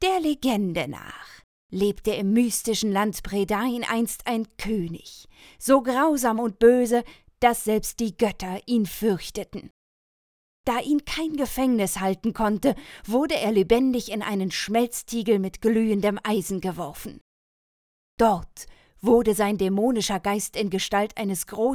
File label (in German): Erzaehlerstimme